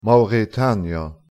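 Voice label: "mauretanier", Luxembourgish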